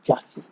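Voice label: "jaasi", Nigerian Pidgin, male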